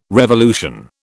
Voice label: "revolution", British